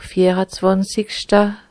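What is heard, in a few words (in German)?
"viarazwànzigschta" Alsatian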